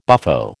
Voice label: "buffo", American